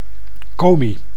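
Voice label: "Komi", Dutch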